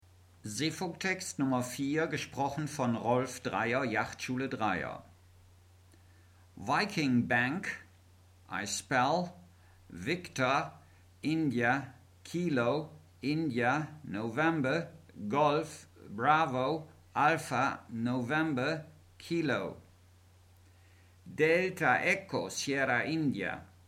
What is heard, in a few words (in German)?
Seefunktext